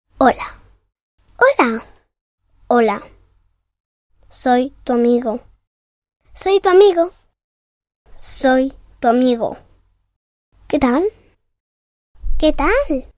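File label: vox Spanish child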